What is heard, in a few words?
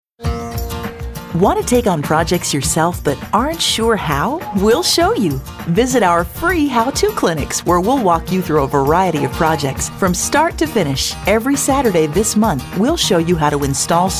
Friendly